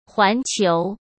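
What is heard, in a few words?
"环球", Mandarin Chinese; chinese-flashcards; hsk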